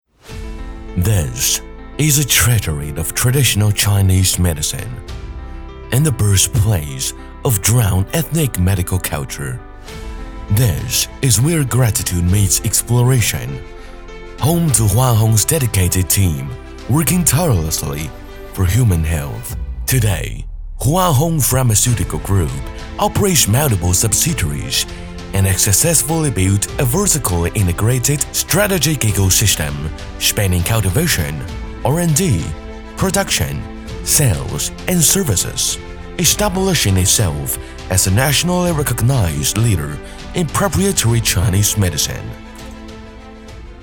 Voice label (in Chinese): 男 英文 沉稳 企业宣传 药业; 花红药业